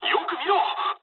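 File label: player friendly fire mood high, speech japanese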